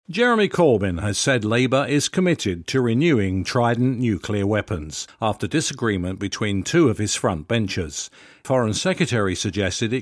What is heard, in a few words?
News